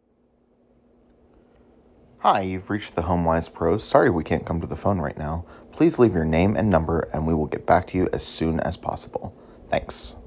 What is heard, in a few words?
voicemail recording